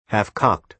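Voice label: "half cocked", American English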